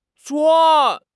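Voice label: "zuan4", Chinese; 汉语口语新时代-初级下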